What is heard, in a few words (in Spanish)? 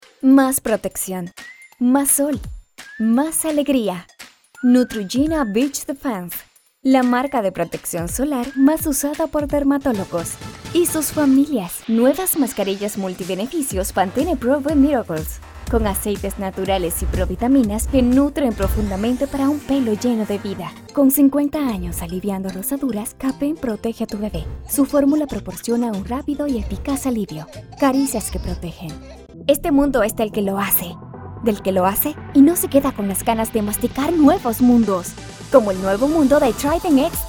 comercial